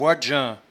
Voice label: "boidjun", patois jura